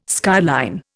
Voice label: "skyline", Indian